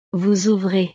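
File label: "vous ouvrez", French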